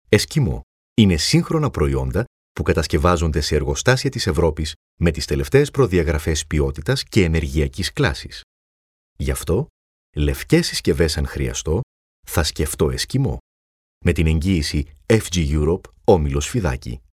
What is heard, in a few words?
greek promos